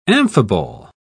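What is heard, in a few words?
"amphibole", British English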